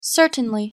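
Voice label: "certainly", English pronunciation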